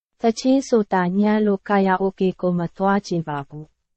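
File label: Burmese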